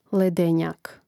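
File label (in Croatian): "ledenjak", Croatian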